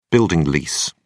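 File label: "building lease", British English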